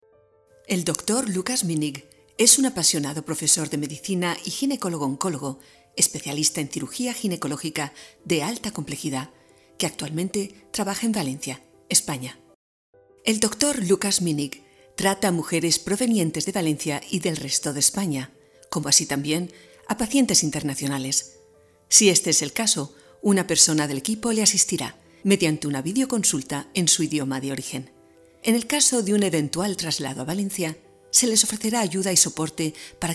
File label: spanish medical narration